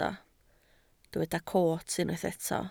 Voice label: "coot", Welsh

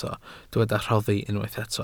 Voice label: "rhoddi", Welsh

